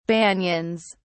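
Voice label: "banyans", British English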